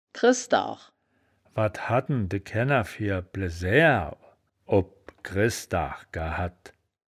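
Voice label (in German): "kresdaach", wittlicher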